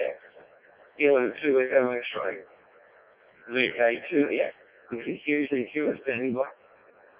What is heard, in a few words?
decoded speech, parrot